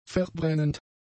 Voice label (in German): "verbrennend", German; adjectives